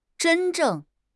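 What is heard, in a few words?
"zhen1 zheng4", Mandarin Chinese; HSK3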